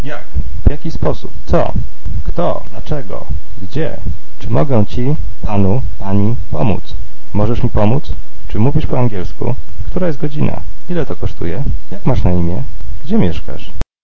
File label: Polish phrases